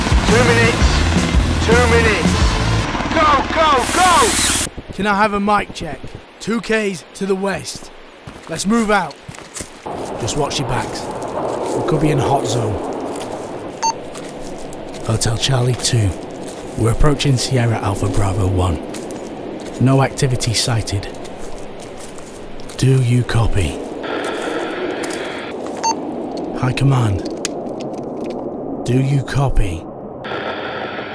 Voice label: Character